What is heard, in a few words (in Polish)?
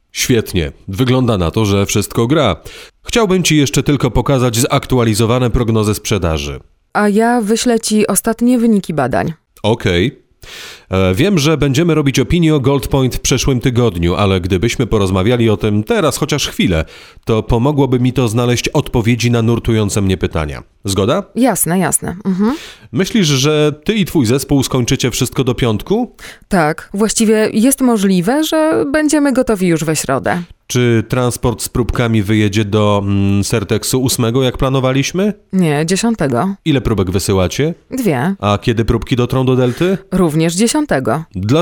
dialog